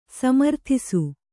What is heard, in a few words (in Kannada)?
"ಸಮರ್ಥಿಸು", kannada